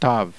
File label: tav, heb